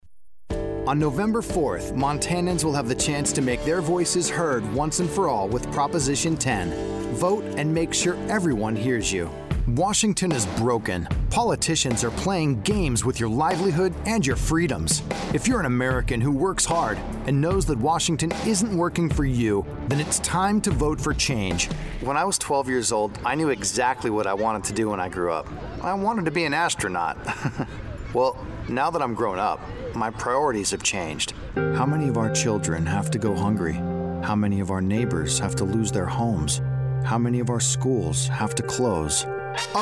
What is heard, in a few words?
Political Male